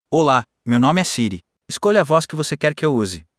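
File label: Brazilian Portuguese; iOS 26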